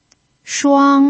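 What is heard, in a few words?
"shuang", Mandarin Chinese pinyin, first tone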